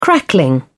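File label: "crackling", British English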